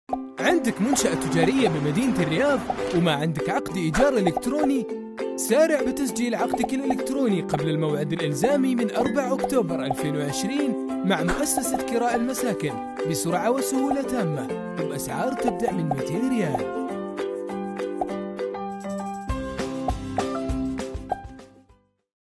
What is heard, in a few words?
Saudi